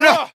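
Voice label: "uh", male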